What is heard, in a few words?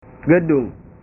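"gedung", Indonesian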